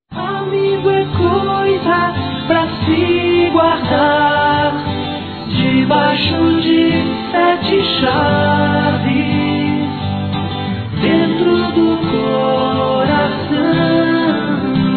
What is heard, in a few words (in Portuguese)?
Elogios Pessoa Amiga Masculino; Telemensagens; Otimismo